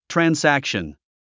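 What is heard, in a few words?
"transaction", English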